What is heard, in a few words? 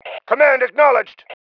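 command acknowledge, male; cmdacknowledge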